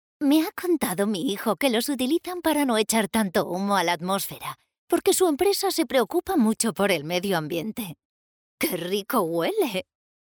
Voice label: locutora abuela spanish voiceover; voces ancianos

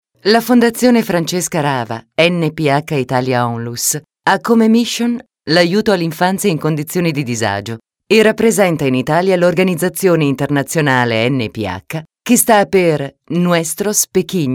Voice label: locutora Italia; locutora italiana

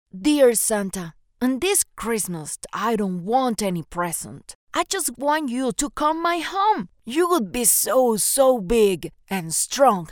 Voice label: locutora infantil espanol neutro latin american child voice english; English child voices. Latin American accent; Recordings of children voiceovers

